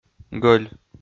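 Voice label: "гӧль", Komi-Zyrian, male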